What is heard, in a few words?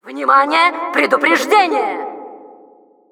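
Announcer security warning, Russian; Внимание! Предупреждение!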